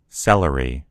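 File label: "celery", American English; gcompris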